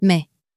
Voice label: "mais", French